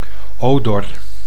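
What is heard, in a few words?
"odor", Dutch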